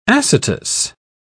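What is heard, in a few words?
"acetous", British English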